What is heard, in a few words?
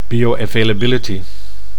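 "biovailability", Dutch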